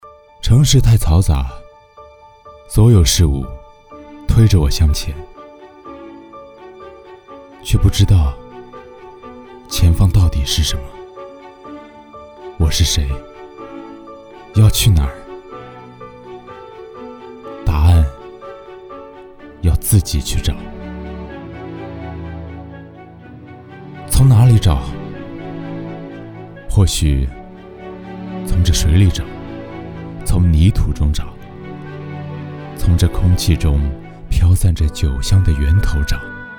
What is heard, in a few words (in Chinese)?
独白